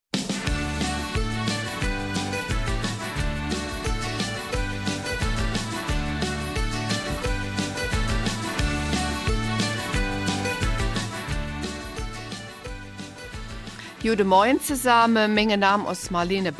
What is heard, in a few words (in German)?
Eifeler Mundart; Welttag der Kranken